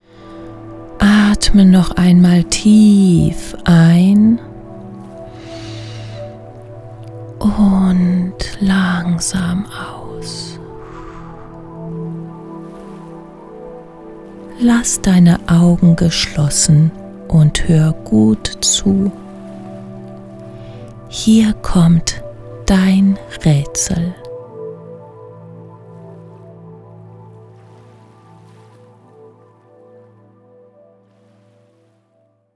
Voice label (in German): Meditation; InsideTimer